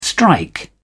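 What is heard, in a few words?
"strike", English, British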